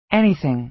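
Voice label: "anything", American English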